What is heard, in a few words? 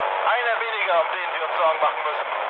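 German player killed enemy mood low, speech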